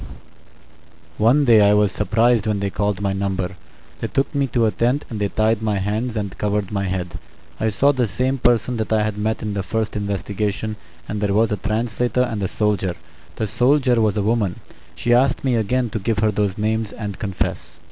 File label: middle east voice over